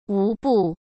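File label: "无不", Mandarin Chinese; chinese-flashcards; hsk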